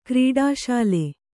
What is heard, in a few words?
"ಕ್ರೀಡಾಶೀಲೆ", kannada